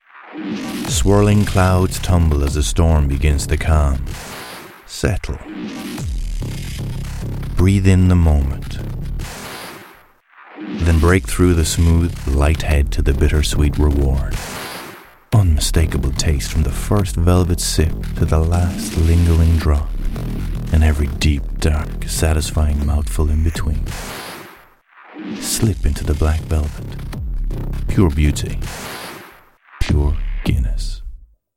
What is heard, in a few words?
male english irish commercial; irish neutral; guinness